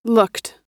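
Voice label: "looked", US English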